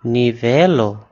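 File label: level, Basque; gcompris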